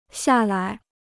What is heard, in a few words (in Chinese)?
"下来", Chinese